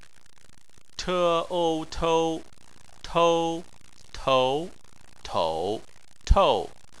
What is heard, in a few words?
"tou", chinese